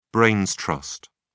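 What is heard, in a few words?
"brains trust", British English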